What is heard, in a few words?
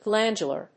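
"glandular", English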